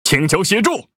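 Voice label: Speech Quick Commands Need Support, Chinese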